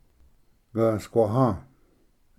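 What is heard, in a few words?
"gvsquaha", Cherokee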